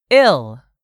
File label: "ill", English; 3000 words